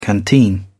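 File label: "canteen", British English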